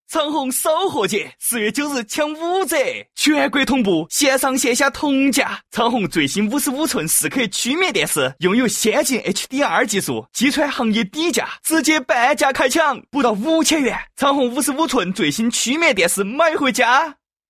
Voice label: 方言 成都话; 长虹

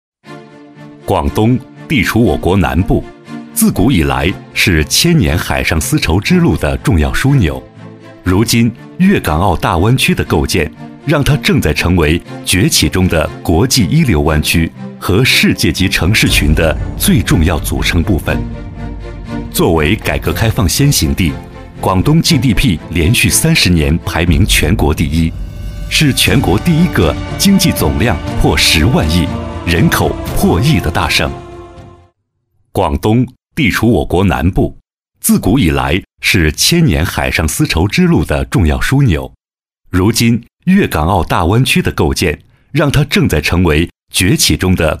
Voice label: 沉稳 专题

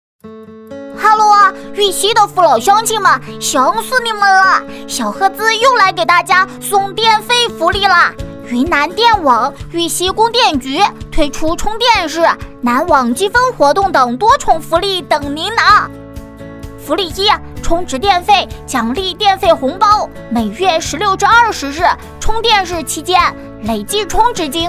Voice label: 女 男童 动画配音; 玉溪充电日

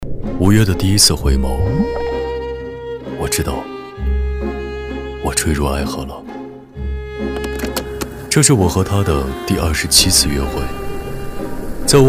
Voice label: 走心温暖 品牌片; 时光的答案

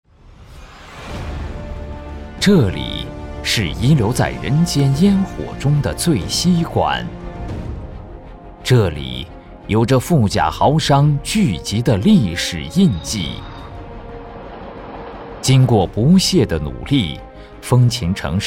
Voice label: 专题社区党建纪实汇报

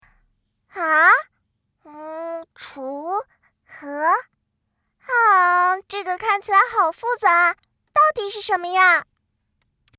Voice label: 女童; 盛龙椒图

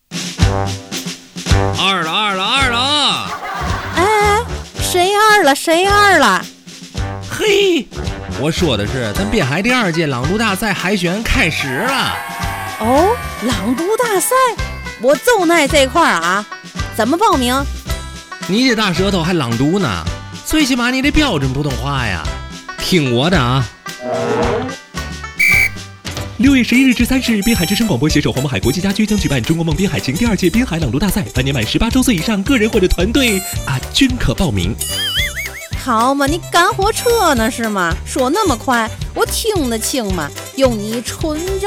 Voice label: 朗读大赛广告

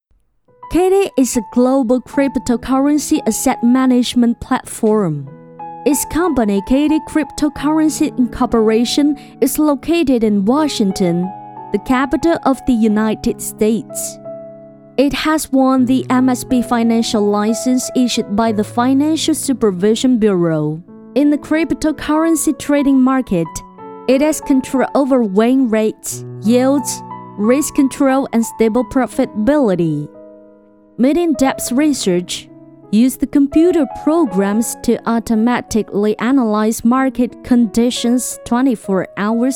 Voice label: 英文 专题

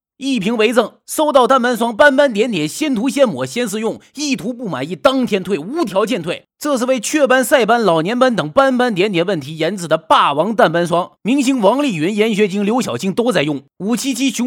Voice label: 东北 祛斑信息流

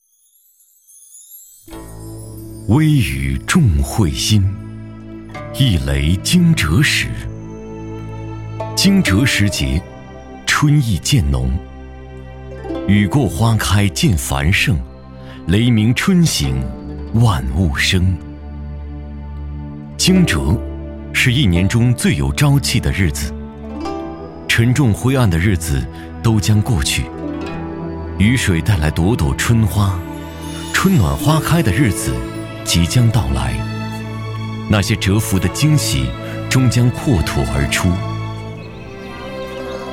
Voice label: 男 大音 样音; 男大音惊蛰样音

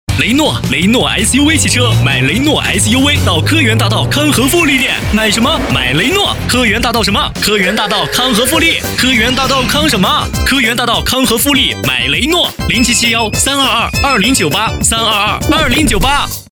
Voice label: 男 SUV; 雷诺SUV

